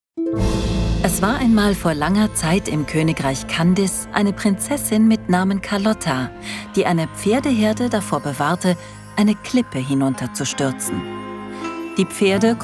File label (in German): Hoerspiel Erzaehlerin; Prinzessin Emmy; Leonine